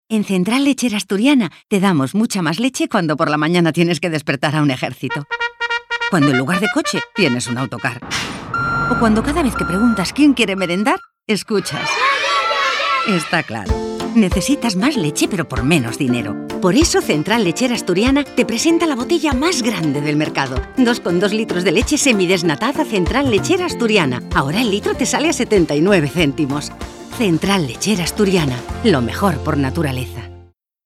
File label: female spanish milk comercial; ideasonora